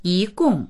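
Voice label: "yi2gong4", Mandarin Chinese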